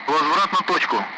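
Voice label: Speech, Russian; Allied Flight; DCS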